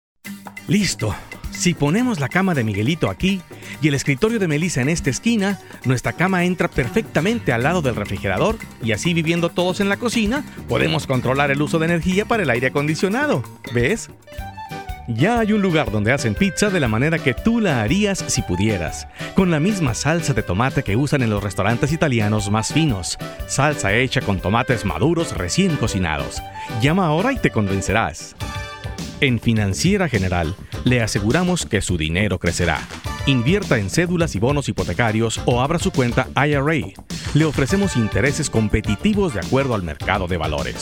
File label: Spanish, males